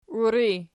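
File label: "uiri" nominative, Archi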